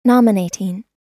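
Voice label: "nominating", American English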